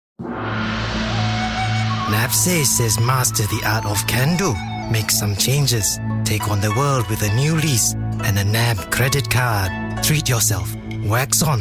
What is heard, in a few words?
japanese accent; EM Voices